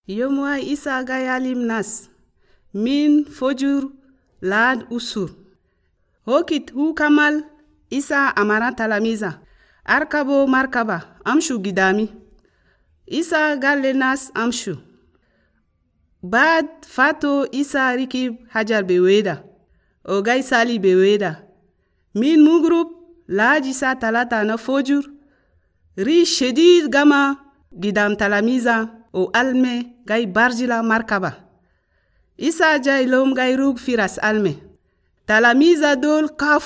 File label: Arabic Chadian; Jésus marche sur l'eau; Jesus Walks on Water